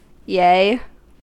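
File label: "yea", US English; pronunciations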